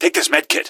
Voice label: health, gasmask; scmod